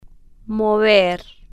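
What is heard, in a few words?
"mover", slow, spanish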